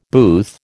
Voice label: "booth", American